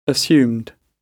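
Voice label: "assumed" British English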